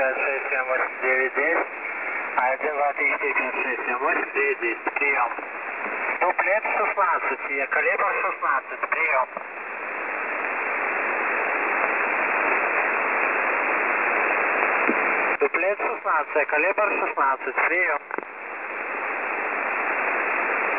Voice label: Ukrainian, numbers stations

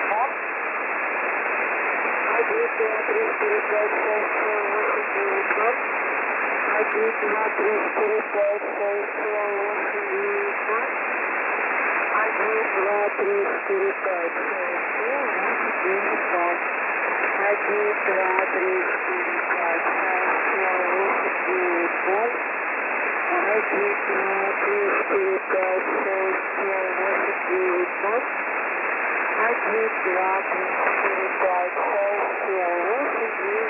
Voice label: Rus Couting 4070.0kHz

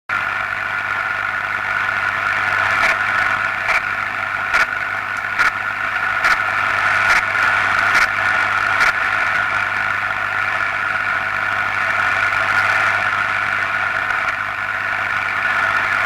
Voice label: Training 4760 KHz; E06; Rimini

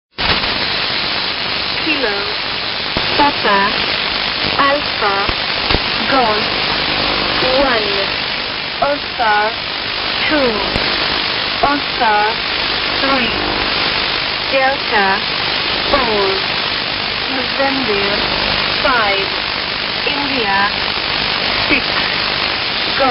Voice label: goodnight, numbers stations

